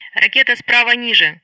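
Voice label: Speech Sound Russian Common Messages; Betty; DCS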